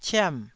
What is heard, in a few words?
"ciam4", taiwanese